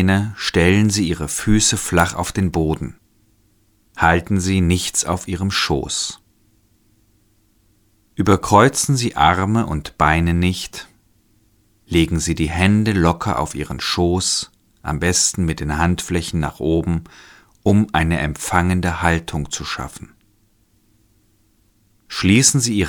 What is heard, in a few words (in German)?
Anleitung Energien fliessen lassen